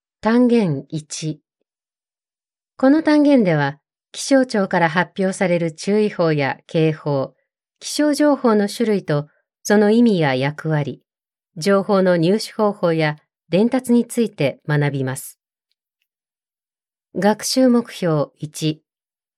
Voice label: japanese e-learning, Japanese voice actor